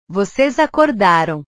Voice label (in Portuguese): "vocês acordaram", portugues; Ustedes despertaron